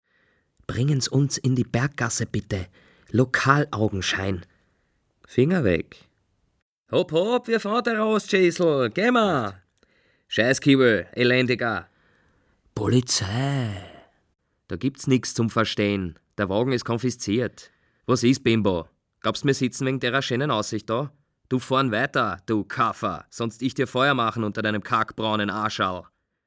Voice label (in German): wienerisch